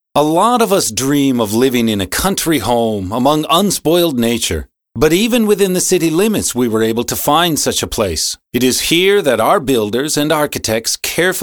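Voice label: Presentation American English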